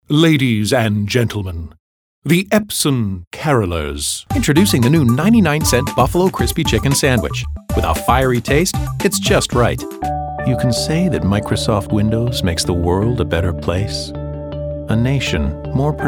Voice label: commercial male